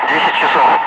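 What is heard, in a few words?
Russian speech, Ka-50 Wingman; DCS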